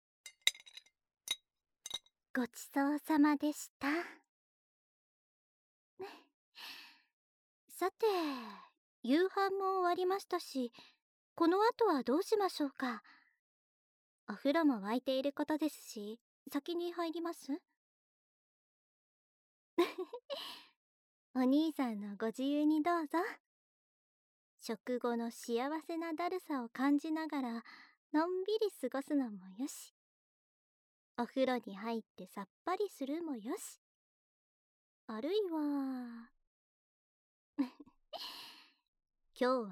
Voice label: ASMR; ふっかーいところまで、綺麗にしちゃいます。